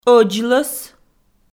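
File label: "ӧдзлӧс", Komi, female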